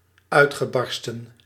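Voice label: "uitgebarsten", Dutch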